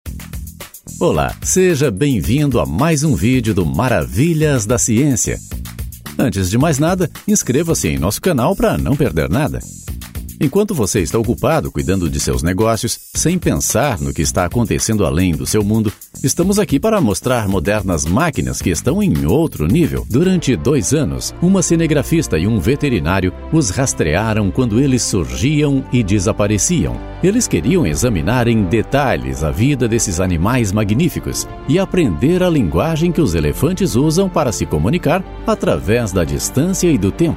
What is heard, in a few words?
portuguese brazilian narration; locutor profissional no Brasil